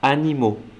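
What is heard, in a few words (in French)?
"animaux", French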